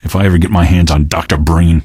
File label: question, male; Garry's Mod; hl2